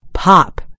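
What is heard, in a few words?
"pop", British English; gcompris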